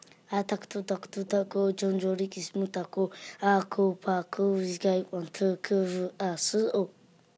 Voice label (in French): Am Stram Gram, Géorgien